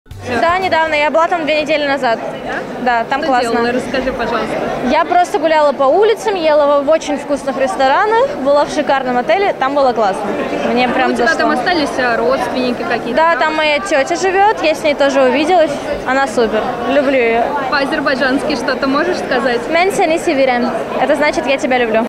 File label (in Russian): говорит на азербайджанском